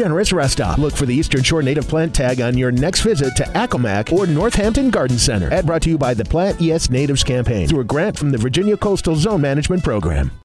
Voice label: radio ad songbirds spring; plantes natives